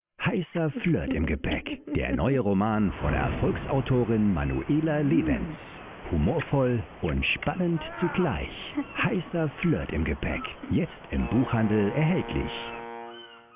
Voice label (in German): TV Spot; Heisser Flirt im Gepaeck